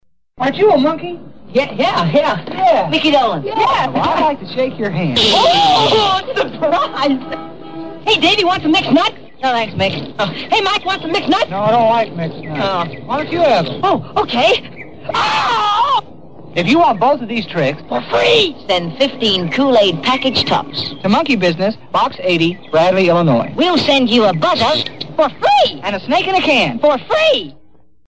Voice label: Commercial; Kool-Aid; 1969